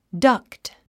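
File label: "duct", English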